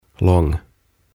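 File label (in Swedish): "lång", Swedish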